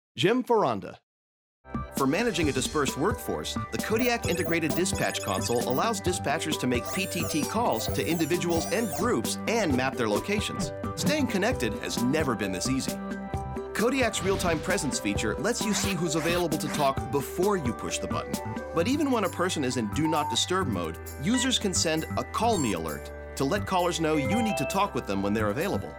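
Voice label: Narration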